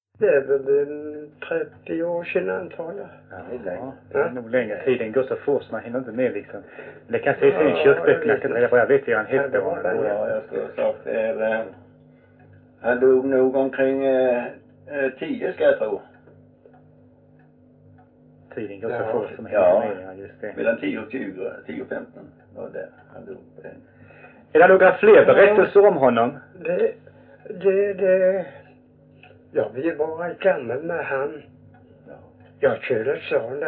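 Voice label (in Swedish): Visseltofta Skåne, svenska sagor dialekter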